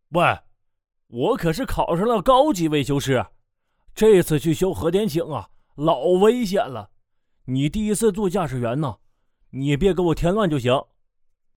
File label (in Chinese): 东北方言动漫